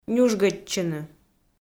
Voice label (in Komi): "нюжгӧдчыны", Komi